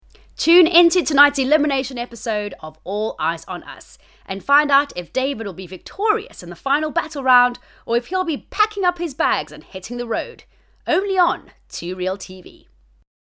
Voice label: Entertainment Promo